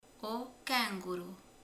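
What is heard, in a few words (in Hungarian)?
"kenguru", Hungarian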